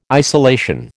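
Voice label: "isolation", American English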